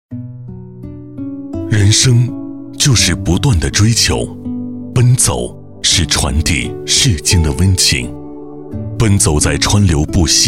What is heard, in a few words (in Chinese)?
男, 宣传片 宣传片配音; 广东狮子会彩虹服务队5周年盛典